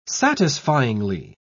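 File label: "satisfyingly", British English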